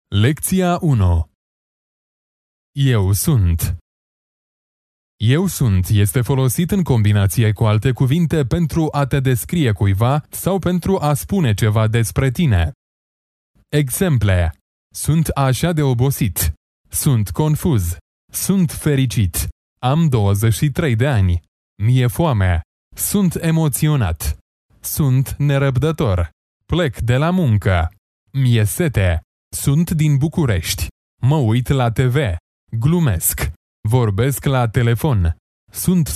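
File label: romanian male e-learning; voice demos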